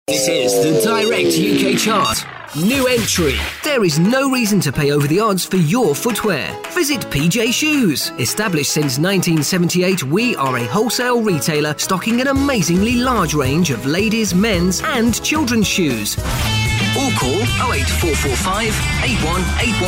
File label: uk voiceover